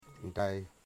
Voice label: "blood", Wamut

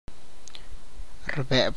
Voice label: "swims", tlacochahuaya

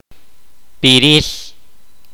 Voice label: kaqchikel; flute

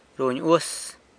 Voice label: "runy uas", San Lucas Quiaviní; uses

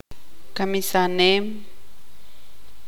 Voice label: tzutujil; matar

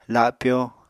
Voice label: "lapyo", Apatani

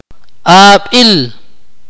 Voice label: "year", Akateko

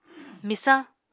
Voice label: "Misañ", apatani

